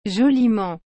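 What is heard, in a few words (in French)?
"joliment", French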